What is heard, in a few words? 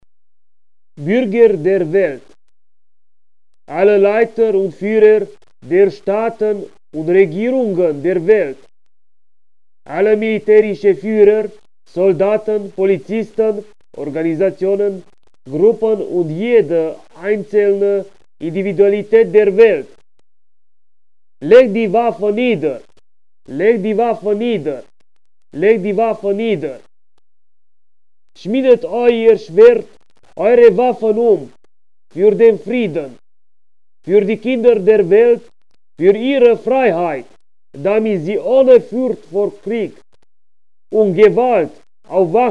global disarm call German